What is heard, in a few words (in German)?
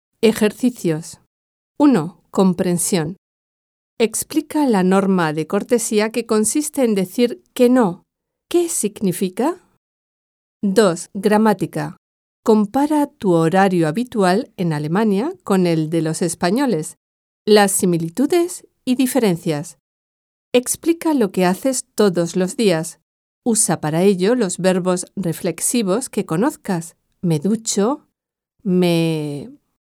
Spanisch Mittel Costumbres Übung